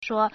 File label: "shuo1", Chinese